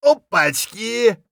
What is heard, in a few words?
scav mutter, voice; Escape from Tarkov; scav4